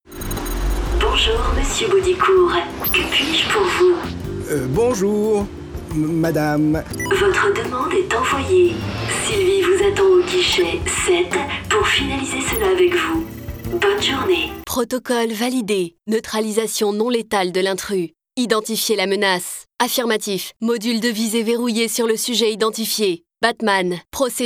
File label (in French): VOIX MACHINES bornes intéractives voix robotisées GPS